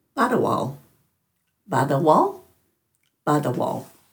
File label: "badhawal", Dhurga